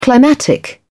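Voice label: "climatic", British English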